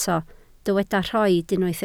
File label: "rhoid", Welsh